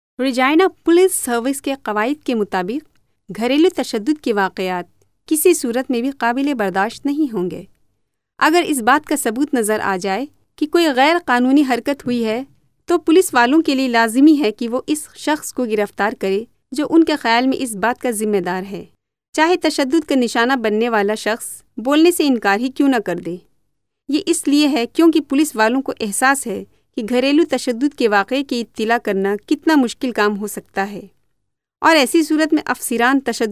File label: Urdu Female